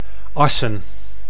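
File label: "Assen", Dutch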